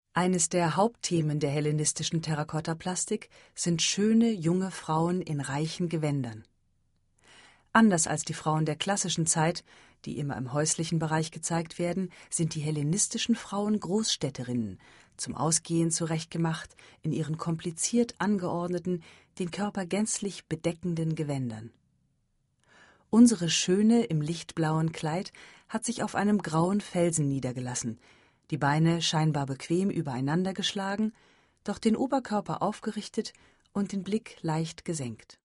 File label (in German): Audioguide Museum